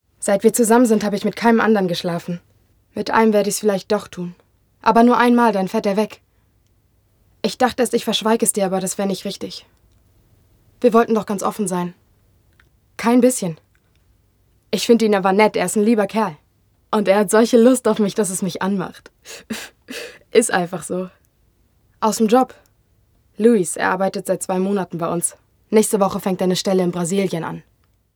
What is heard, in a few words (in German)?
Synchron Komoedie; Franz.